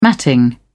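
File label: "matting", British English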